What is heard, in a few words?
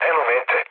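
Serbian near waypoint mood low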